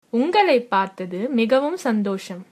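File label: meet, Tamil, female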